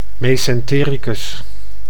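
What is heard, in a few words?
"mesentericus", Dutch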